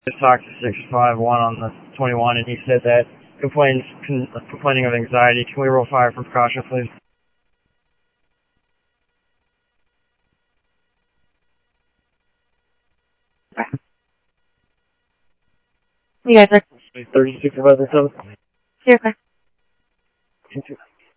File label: Sheriff, scanner audio